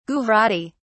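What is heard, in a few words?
"gujarati", British English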